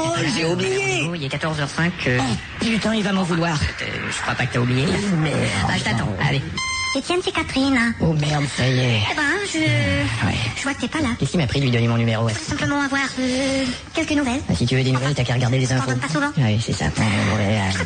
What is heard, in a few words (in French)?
Répondeur; Les 2 minutes du peuple